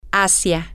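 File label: towards, Spanish, female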